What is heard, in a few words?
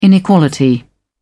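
"inequality", British English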